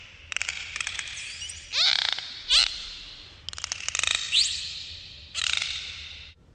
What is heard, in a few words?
upset; bernie; fertig classic games